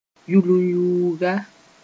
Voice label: "yulunyuuga", moore

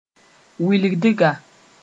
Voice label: "wilgdga", Moore

